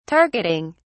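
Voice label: "targeting", British English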